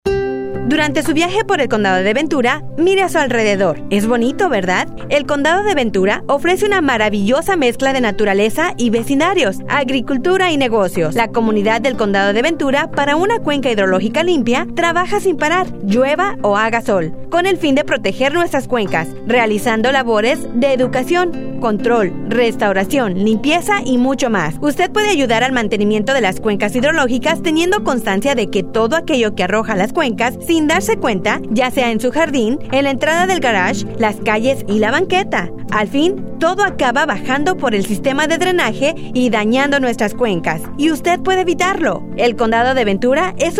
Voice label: sp radio